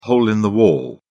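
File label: "hole-in-the-wall", British English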